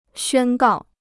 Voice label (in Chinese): "宣告", Chinese; xuān gào; to declare; to proclaim